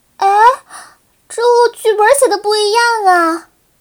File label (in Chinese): 失败, BattleCry; 薇薇安